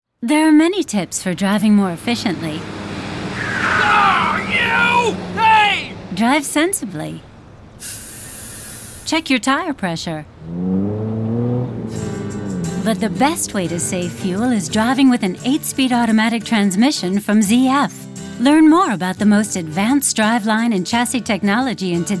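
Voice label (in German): American English Werbung Freundlich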